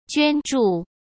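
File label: "捐助", Mandarin Chinese; chinese-flashcards; hsk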